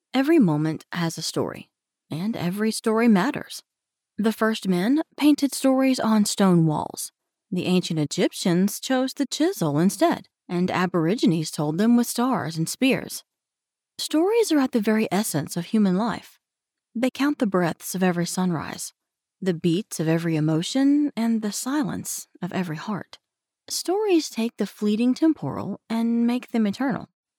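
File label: English American, female, voice over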